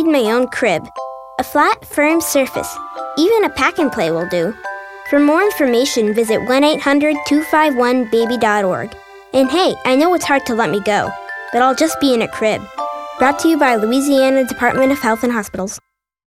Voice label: Safe Sleep Radio Eng; SIDS